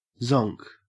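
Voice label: "zonk", Australian English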